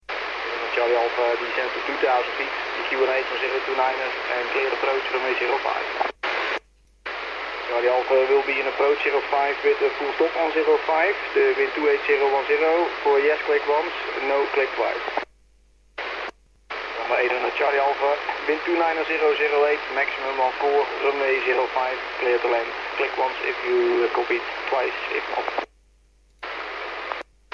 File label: radio failure landing; ehgg